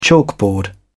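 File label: "chalkboard", British English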